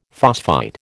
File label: "phosphite", American